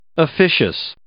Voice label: "officious", English